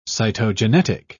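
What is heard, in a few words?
"cytogenetic", British English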